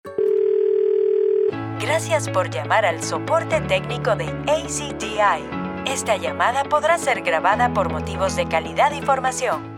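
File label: Latin American Spanish, female, IVR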